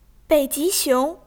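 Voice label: "bei ji xiong", Chinese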